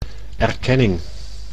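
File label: "erkenning", Dutch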